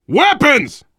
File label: spot grenades, voice, biker; Left 4 Dead 2; survivor